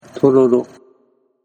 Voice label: "tororo", Japanese